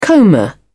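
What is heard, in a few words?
"comber", British English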